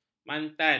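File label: "mantan", Malay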